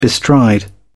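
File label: "bestride", British English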